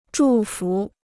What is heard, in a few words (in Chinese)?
"祝福", Chinese